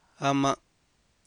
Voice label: "aman'", Copala Triqui